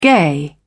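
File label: "gay", British English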